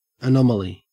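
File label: "anomaly", Australian English; pronunciations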